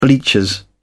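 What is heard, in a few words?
"bleachers", British English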